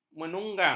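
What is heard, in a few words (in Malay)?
"menunggang", Malay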